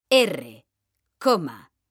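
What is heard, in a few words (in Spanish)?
"erre coma", Spanish, female; mekanta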